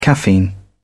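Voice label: "caffeine", English, British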